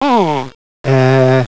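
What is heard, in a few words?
open mid front unrounded; london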